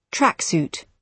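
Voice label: "tracksuit", British English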